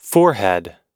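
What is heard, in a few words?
"forehead", English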